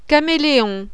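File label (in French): "caméléon", French; REPTILE; animal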